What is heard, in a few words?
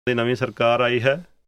new government phrase, punjabi; English: new government